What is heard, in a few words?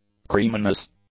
"creaminess", English